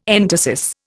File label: "entasis", Indian